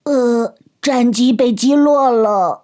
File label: Speech Cat Fight Destroyed; World Of Warships